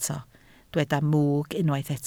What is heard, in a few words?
"mwg", Welsh